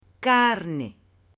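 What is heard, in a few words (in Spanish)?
"carne" Spanish, male; ALIMENTOS; AppLenguaje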